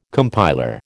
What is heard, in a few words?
"compiler", American